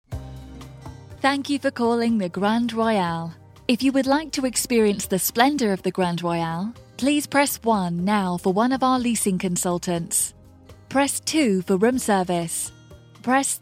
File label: IVR Telephone, british female voiceover